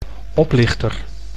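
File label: "oplichter", Dutch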